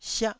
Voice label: "siah1", taiwanese Syllables

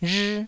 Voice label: "rhii1", taiwanese

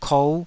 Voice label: "kou5", Taiwanese syllable

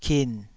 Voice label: "kin3", taiwanese syllables

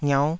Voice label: "ngiauh5", taiwanese syllables

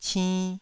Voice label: "cinn2", taiwanese

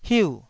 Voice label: "hiu4", taiwanese syllable

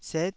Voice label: "set9", Taiwanese

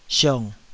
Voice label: "siong3", Taiwanese

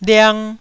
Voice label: "liang1", Taiwanese syllable

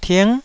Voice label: "ting9", Taiwanese

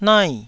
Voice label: "nai4", taiwanese syllables

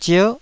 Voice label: "zier9", taiwanese

